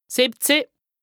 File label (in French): "sìwwezëh", lexique indispensable haut rhin, Alsace; 17